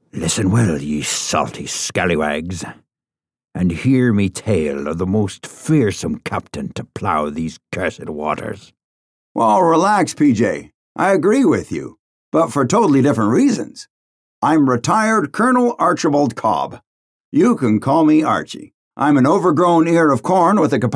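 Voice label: animation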